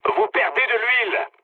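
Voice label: damaged oil, mood low, French; War Thunder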